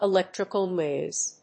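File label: "electrical noise", English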